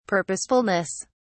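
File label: "purposefulness", British English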